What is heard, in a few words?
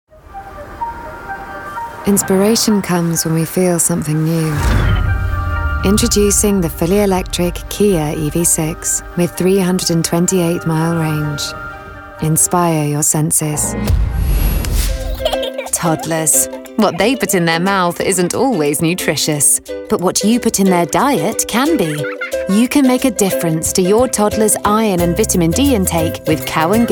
Commercial